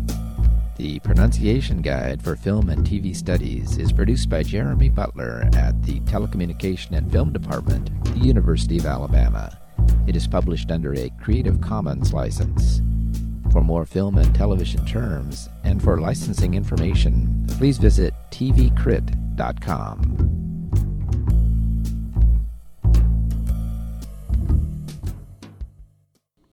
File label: lavaliere microphone